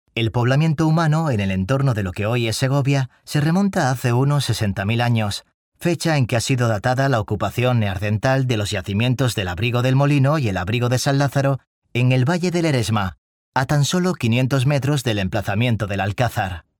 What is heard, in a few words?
locutor espanol spanish voiceover